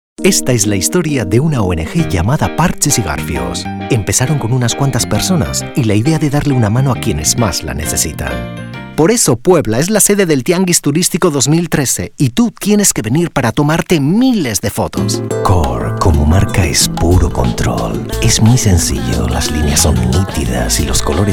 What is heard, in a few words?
spanish neutral mix